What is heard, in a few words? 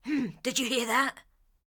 Ranged Female Patrol Line; NPC; Heist Thug Captain Patrol